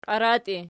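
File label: "qiaratii" nominative, Archi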